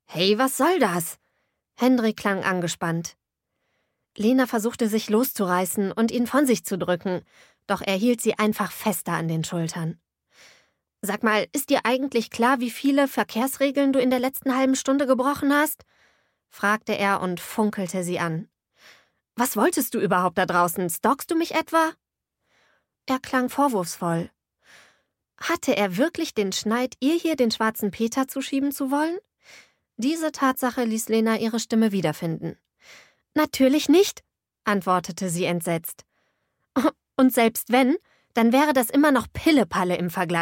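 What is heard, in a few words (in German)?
Hörbuch; Auf frischer Tat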